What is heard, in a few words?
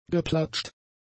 "geplatscht", German; adjectives